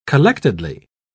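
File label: "collectedly", British English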